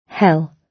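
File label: "hell", British English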